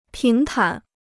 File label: "平坦", Chinese